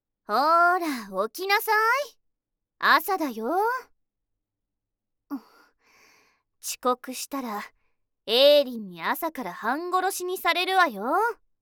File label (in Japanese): システムボイス めざましボイス; 輝夜